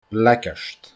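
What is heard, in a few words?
"bleigeard", Scottish Gaelic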